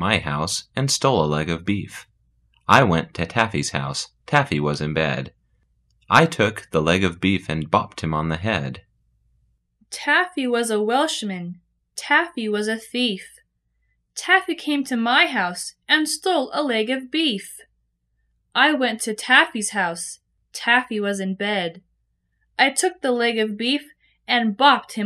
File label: poetry